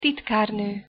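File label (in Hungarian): "titkarno", Hungarian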